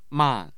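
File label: "man4", Mandarin Chinese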